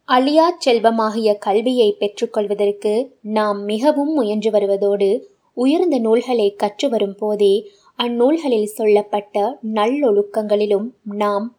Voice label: Tamil, Female

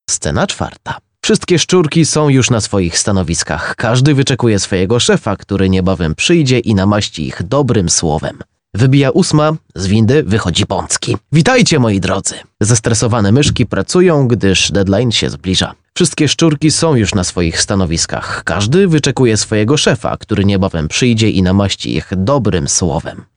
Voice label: Polish, Male

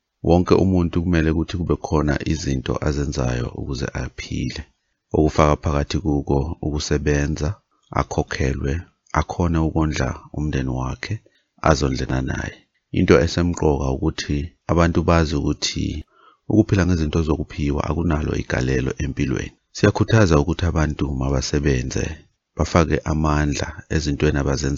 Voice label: Male, Zulu

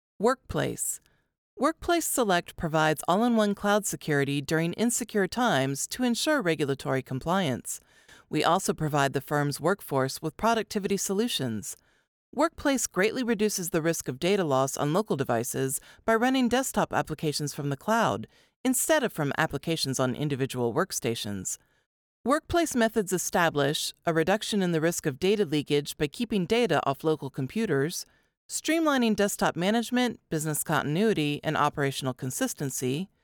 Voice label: English (US), Female

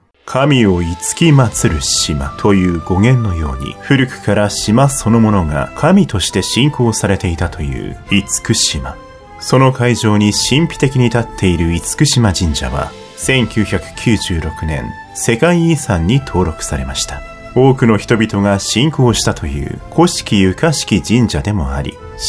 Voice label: Japanese, Male

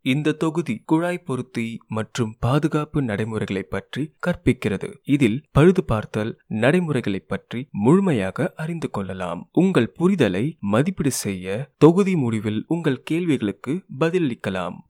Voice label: Tamil, Male

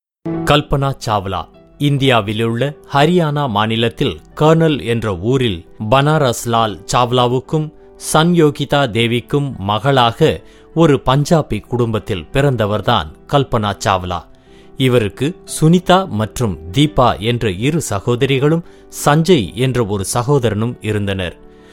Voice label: Tamil, Male

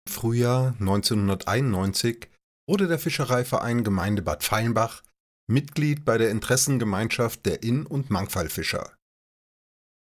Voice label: German, Male

